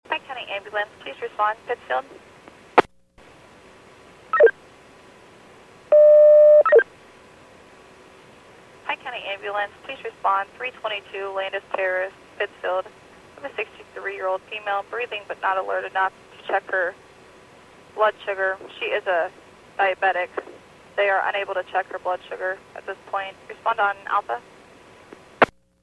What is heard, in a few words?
PAGING